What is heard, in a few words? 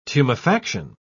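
"tumefaction", British English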